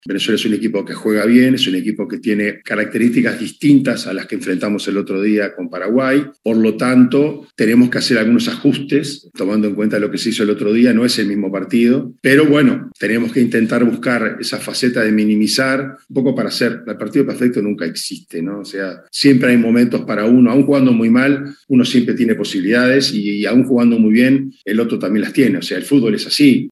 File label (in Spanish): cuña; LASARTE